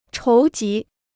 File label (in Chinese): "筹集", Chinese